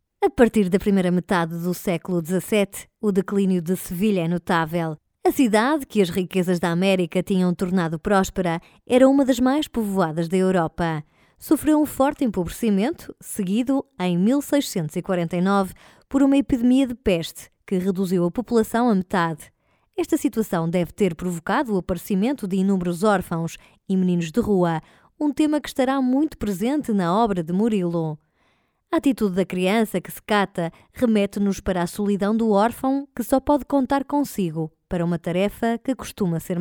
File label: portuguese documentaries